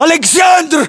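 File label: "aleksandr", battlechatter, Russian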